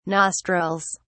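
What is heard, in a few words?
"nostrils", British English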